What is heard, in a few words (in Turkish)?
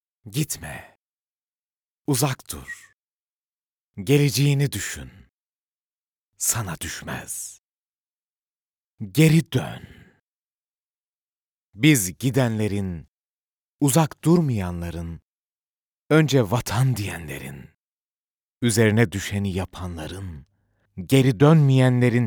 duygusal motive, seslendirme